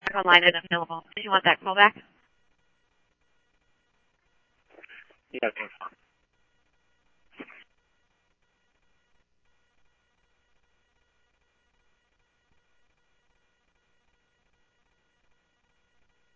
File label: Police, scanner